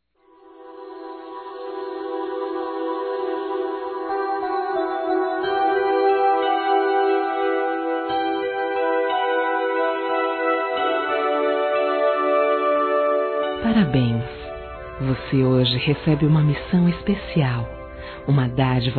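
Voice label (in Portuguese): Parabéns Madrinha Batizado, feminino; Telemensagens; Telemensagens 2017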